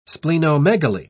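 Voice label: "splenomegaly", British English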